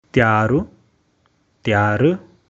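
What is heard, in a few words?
"Tyar/ tumar" Garhwali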